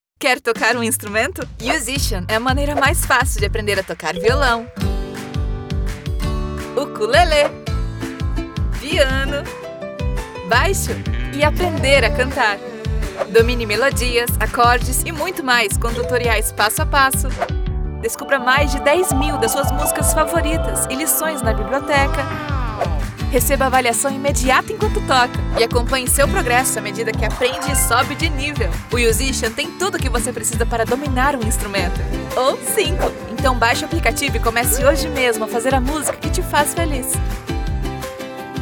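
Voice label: commercial ad young upbeat light happy natural musical female brazilian; yousician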